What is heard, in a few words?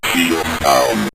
shields Down, Synth Voice; Kenney's Sound Pack